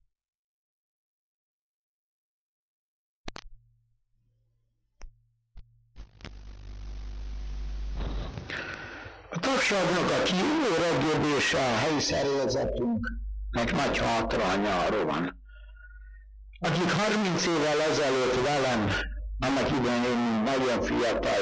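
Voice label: dialect collection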